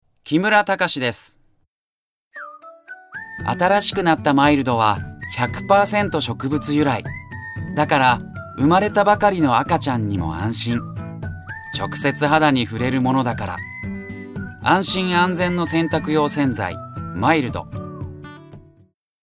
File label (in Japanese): 元気 CM